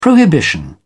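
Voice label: "prohibition", British English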